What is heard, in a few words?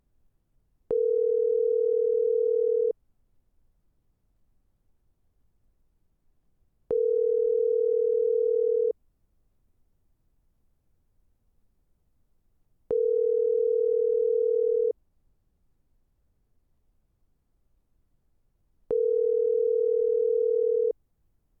Automated Voicemail Announcement